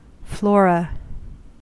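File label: "flora", US English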